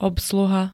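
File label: "obsluha", Slovak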